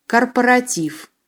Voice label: "korporativ", Russian